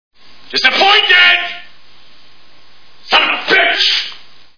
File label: disappointed; Fish Called Wanda